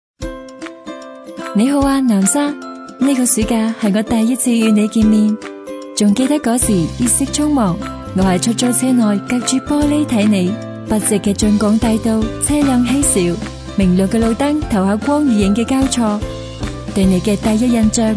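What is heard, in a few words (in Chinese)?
女声粤语宣传青春女声城市宣传; 南沙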